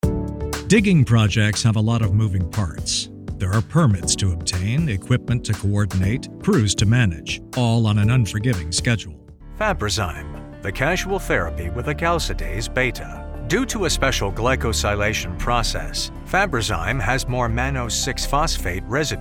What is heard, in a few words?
english american corporate videos